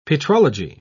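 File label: "petrology", English, British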